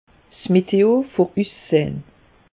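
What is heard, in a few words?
"meteo", Alsatian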